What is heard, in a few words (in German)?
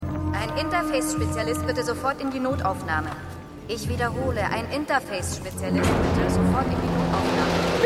Durchsage; The Outer Limits